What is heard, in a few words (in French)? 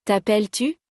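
"t appelles tu", French; conjugaison; le verbe s'appeler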